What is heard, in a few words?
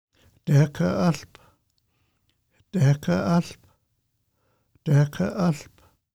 Salal Bush Musqueam name pronunciation